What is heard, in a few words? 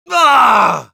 Scout negative vocalization, es; "¡Aaagg...!"